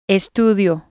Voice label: "estudio", spanish